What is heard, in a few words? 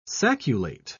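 "sacculate", English, British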